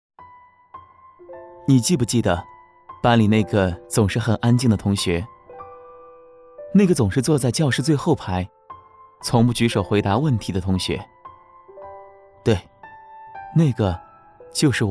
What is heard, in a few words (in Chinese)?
男 解说 温情怀旧; 飞碟说